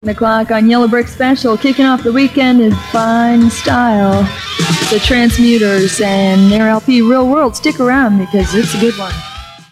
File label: radio promo; Transmuters